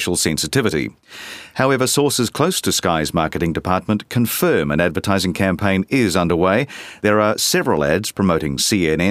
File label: News Radio; SKY News Radio